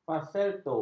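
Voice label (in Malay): "falsetto", Malay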